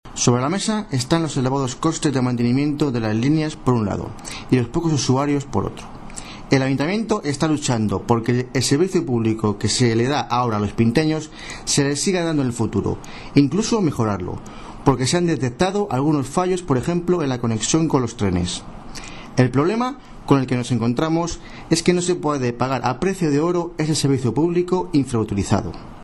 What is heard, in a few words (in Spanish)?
noticias